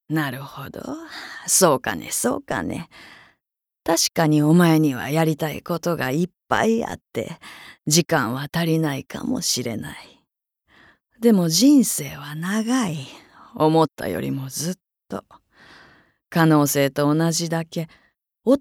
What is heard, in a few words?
female voice